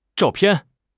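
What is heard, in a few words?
"zhao4 pian4", Chinese; HSK3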